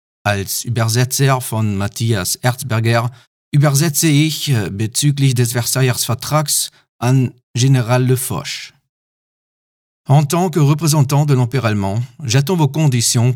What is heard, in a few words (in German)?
Sample Franzoesisch